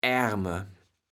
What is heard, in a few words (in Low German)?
"ärme", kaevels platt